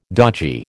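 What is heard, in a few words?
"dodgy", American English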